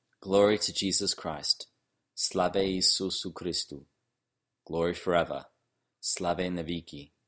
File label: Glory To Jesus Christ Church Slavonic